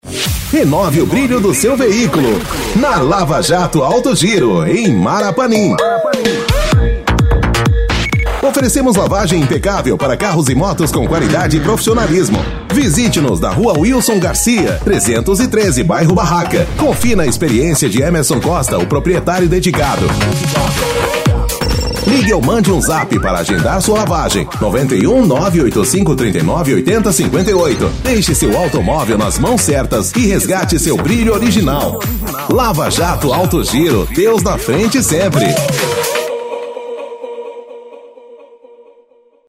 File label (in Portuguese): LOCUTOR; comerciais; LAVA JATO ALTO GIRO